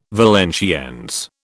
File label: "Valenciennes", British